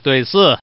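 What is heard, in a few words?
Man, pair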